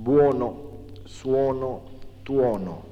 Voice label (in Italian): O aperto Lecce